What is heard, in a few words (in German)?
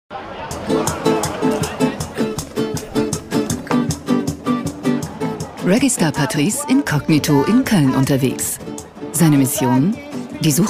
Kommentar Freundlich